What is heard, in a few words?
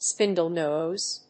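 "spindle nose", English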